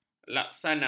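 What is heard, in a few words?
"laksana", Malay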